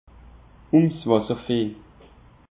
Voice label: "wasservieh", alsace; peche

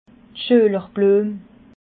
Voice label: "schuelerbluem", alsace

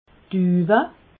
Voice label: "duuwe", alsace

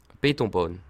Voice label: "betonbahn", Alsace

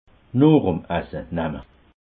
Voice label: "nochem", alsace

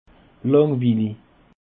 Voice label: "langwillig", alsace

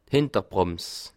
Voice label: "hinterbrams", alsace

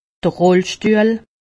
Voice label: "rollstuehl", alsace; medecine

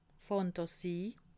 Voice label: "fantasy", alsace

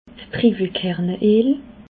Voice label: "triiwelkerne eel", alsace

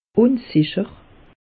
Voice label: "unsicher", alsace; meteo

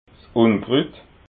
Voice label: "unkrut", alsace

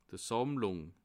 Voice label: "sammlung", alsace

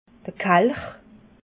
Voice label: "kelch", alsace

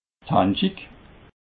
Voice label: "haendschi", Alsace

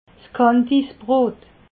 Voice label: "kansdibrot", alsace

